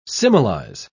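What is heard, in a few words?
"similize", British English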